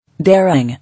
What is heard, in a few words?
"Daring", English